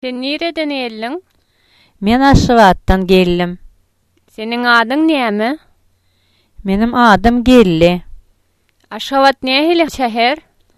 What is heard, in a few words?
dialogue Ashgabat, turkmen